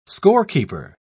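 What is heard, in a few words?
"scorekeeper", British English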